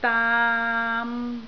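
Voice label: "daam3", Cantonese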